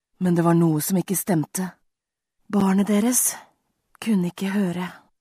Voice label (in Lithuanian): (MOT) Norvegu balsas; moteris